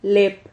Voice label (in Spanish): "lep", Silabas